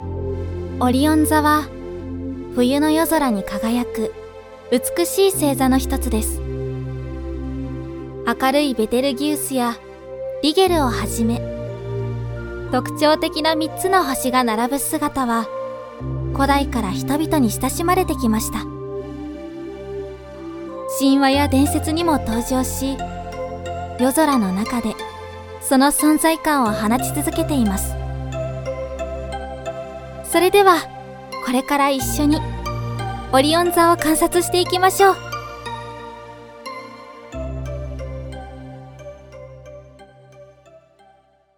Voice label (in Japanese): NA 落ち着き